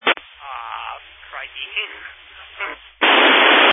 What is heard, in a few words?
Family Radio Service (FRS)